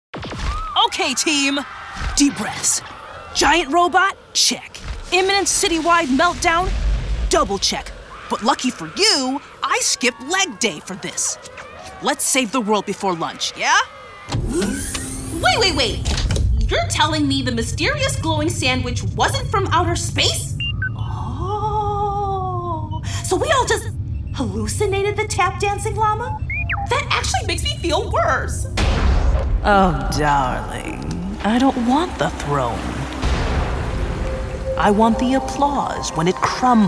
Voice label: Animation, demo